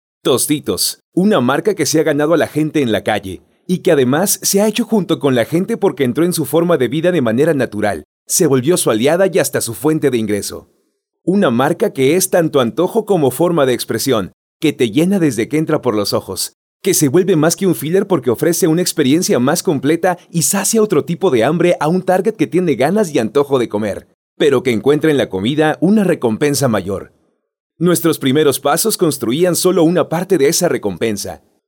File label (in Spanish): LOC VIDEO INSTITUCIONAL Y CORPORATIVO; CLIP TOSTITOS